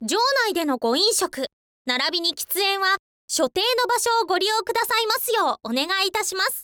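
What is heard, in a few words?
zyounai de no goinsyoku, Japanese, girl, info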